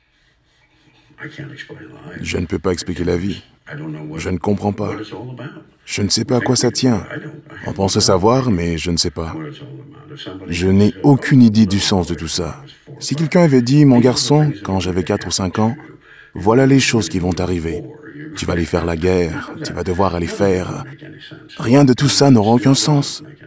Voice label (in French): Surimpression vocale